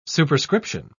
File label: "superscription", en uk